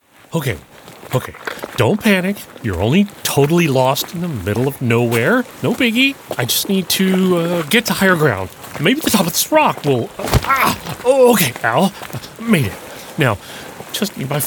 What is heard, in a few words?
Commercial, American male voiceover; Commercial Demo